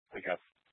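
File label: Police Dispatch, Scanner audio recording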